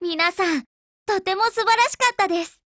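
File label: WIN Japanese, Voice; GF Alarm; BrowningHP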